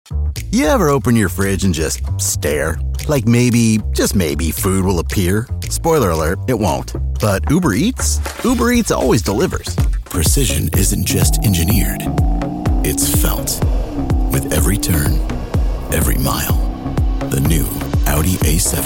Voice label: Commercial Demo